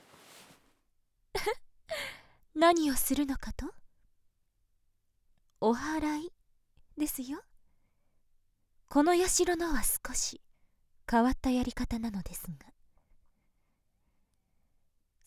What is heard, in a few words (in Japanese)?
ゲップ＆キス切り抜き 効果音入り; ASMR